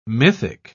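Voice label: "mythic", British English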